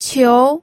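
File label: "qiu", Mandarin Chinese, second tone; pinyin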